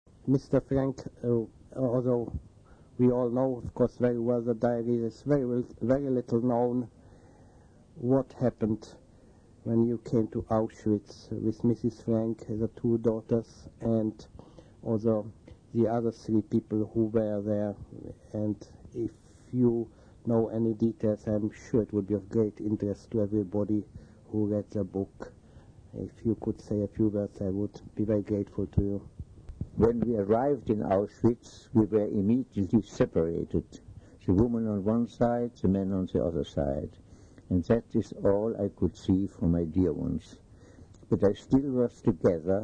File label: Conversation; Diary Anne Frank